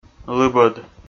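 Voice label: "лыбӧд", Komi, male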